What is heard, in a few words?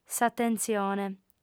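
"s'atentzione", Sardinian female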